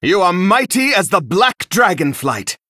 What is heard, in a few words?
Male Dragon Combat Win